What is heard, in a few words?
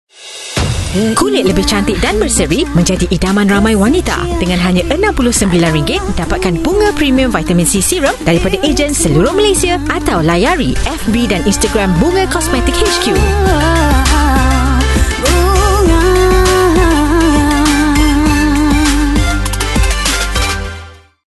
outro timecheck